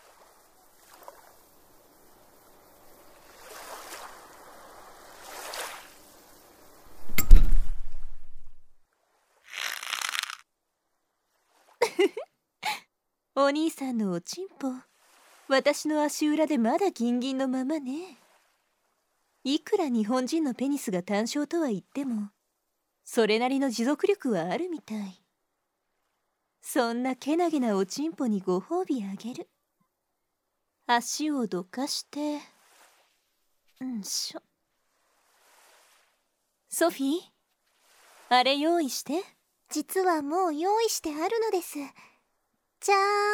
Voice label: オナホで射精コントロール

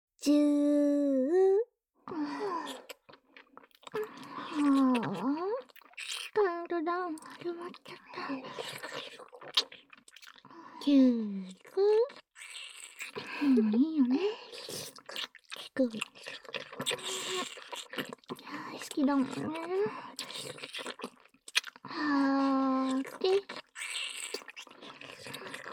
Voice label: 両乳首舐めカウントダウン, ASMR

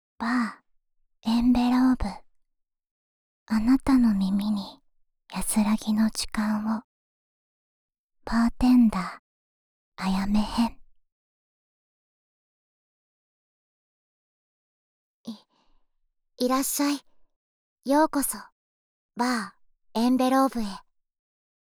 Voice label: タイトルコール, ASMR

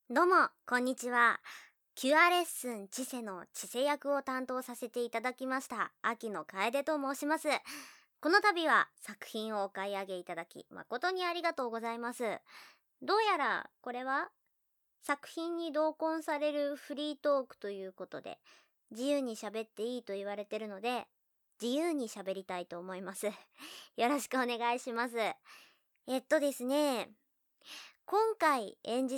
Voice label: フリートーク

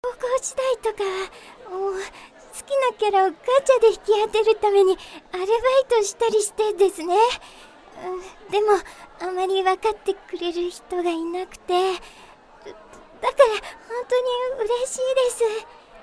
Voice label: 自己紹介コミュ力低

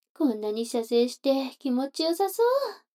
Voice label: 女の子台詞, ASMR

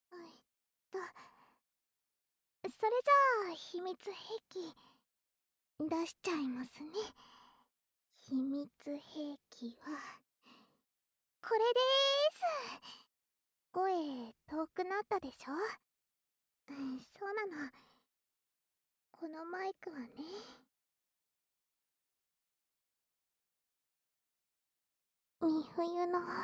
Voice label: 耳元マイク; 耳元吐息☆バイノーラル; みふゆのアソコの音、配信しちゃいます♪

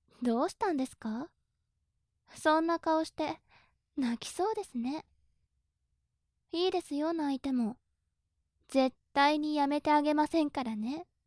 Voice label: お仕置き中音声

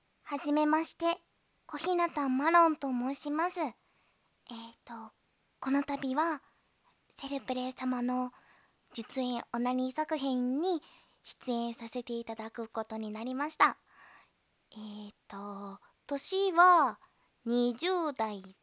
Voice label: オナニー実演 カウント

